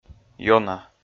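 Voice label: "ёна", Komi, male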